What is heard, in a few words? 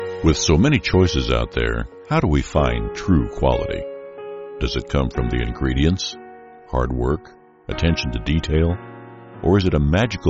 narration